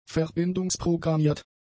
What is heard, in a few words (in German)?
"verbindungsprogrammiert", German; adjectives